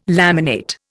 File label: "laminate", Indian